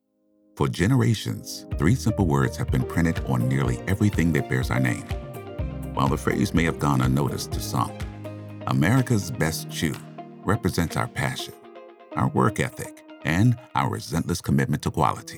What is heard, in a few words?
NARRATION NATURAL